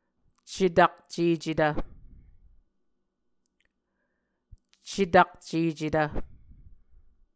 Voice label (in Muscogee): "cetakcecetv", Muscogee